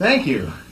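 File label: "thank you", male voice; expletives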